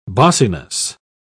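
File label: "bossiness", British English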